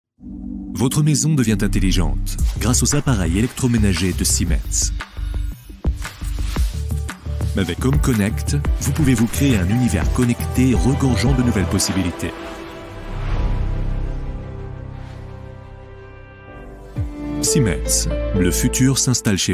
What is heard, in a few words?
commercial tv; siemens hc